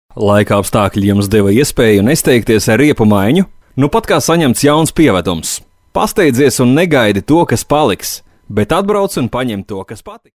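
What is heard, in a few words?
Latvian, male, commercial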